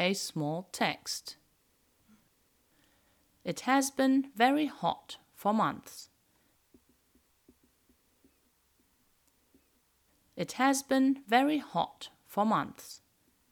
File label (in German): Hörprobe Rain nachsprech engl